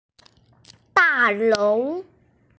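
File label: "dalou", Mandarin Chinese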